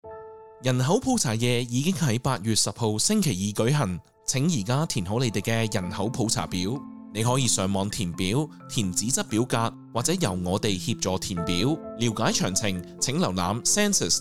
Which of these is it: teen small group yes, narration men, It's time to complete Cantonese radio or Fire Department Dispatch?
It's time to complete Cantonese radio